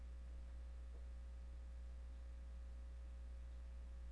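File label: "neck", spoken word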